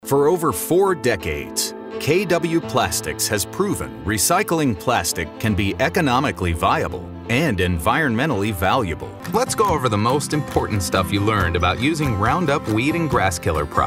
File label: narration